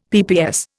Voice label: "PPS", Indian; Dictionary